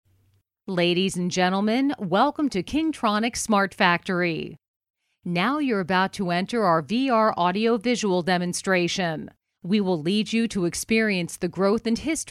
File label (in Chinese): 外籍英女 某制造公司介绍宣传短片配音